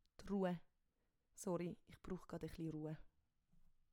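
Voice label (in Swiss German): "d Rue", Swiss German; Wortschatz Kapitel 1; Kapitel 1 Hauptwortschatz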